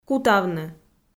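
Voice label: "кутавны", Komi, female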